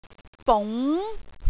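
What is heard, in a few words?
"bung2", Cantonese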